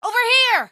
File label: "over here", female; npc; Garry's Mod; hl2